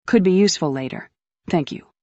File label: fem